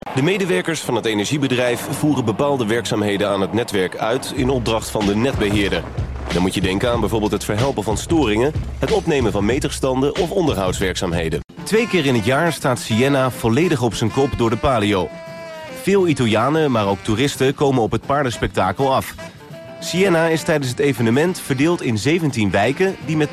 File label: voice-over; voice-over demo